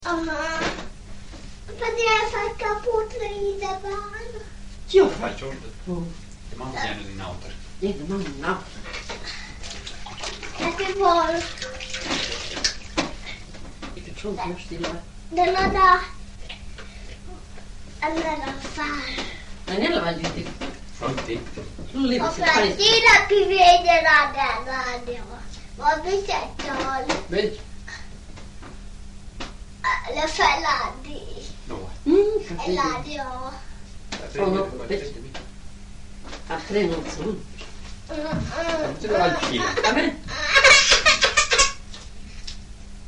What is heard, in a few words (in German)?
romanisch; sedrun; baden